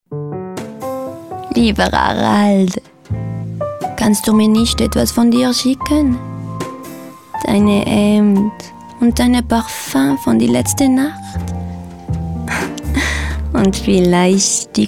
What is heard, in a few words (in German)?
franz. akzent